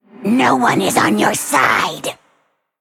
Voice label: Female Undead

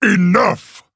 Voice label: Male Orc Attack

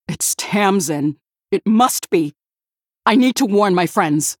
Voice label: Female Human Victory; Cariel; Mission3 Victory

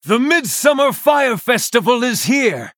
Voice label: Male Human, FIRE FESTIVAL; Medivh

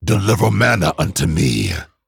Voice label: Male Dragon Error Need Mana

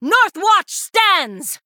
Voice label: Female Human Attack; Fairmount

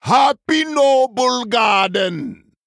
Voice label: Male Troll HAPPY NOBLEGARDEN

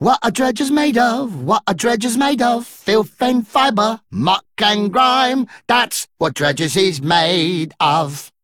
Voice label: Male Dredger Flavor

